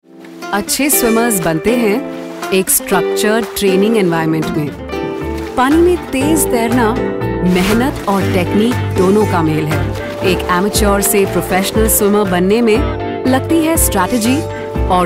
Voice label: Indian Hindi Narration